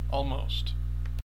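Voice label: "almost", American English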